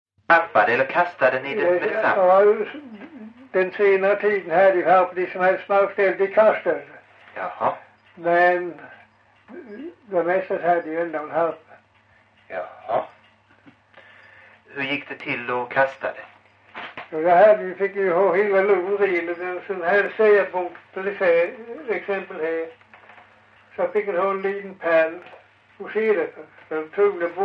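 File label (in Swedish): Farstorp Skåne, dialekter; Folkminnen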